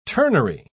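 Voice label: "turnery", British English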